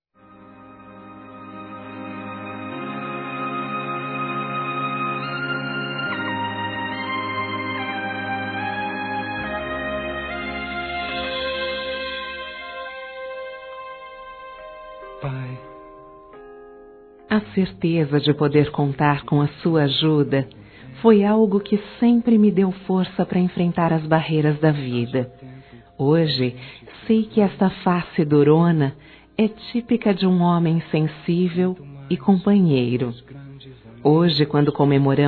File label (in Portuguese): Neutro, fem; Telemensagens 2017